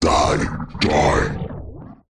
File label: horror demonic voice male deep says die; zapsplat